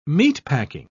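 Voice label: "meatpacking", British English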